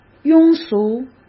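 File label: "庸俗", Mandarin Chinese; hsk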